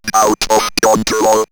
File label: Robot Out Of Control, robot vox; X-Static Goldmine